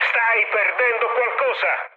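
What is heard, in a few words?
Italian tank damaged mood med, speech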